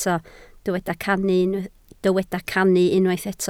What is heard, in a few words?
"cannu", Welsh